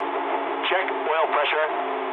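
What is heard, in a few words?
damaged oil mood low, speech English; War Thunder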